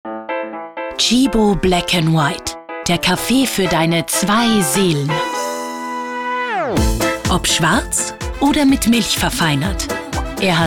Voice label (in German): Frau OFF